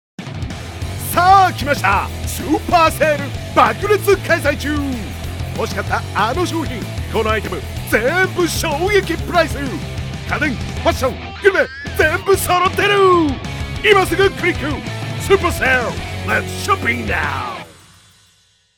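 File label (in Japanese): セール CM, ナレーション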